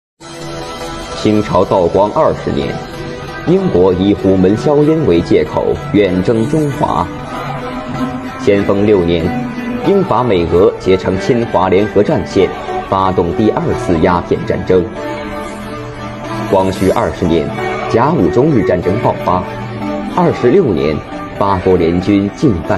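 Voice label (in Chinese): 历史旁白, 男 青年 普通话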